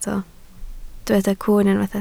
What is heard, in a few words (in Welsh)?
"cwwn" Welsh